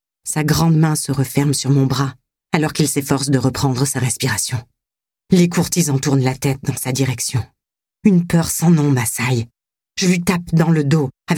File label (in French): reine courtisane narration